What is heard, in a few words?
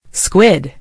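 "squid", British English; gcompris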